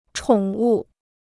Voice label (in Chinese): "宠物", Chinese